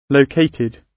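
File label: "located", British English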